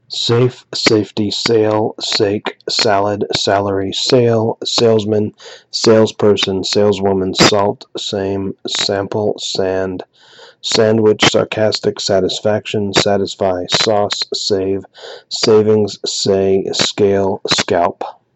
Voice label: Shrill chirping S sounds; S sounds recording